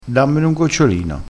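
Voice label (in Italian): "gocciolino", vocabolario dialettale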